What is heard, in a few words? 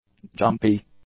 "jumpy", english; adjectives